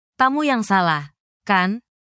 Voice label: indonesian phrases